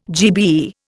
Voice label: "GBE", Indian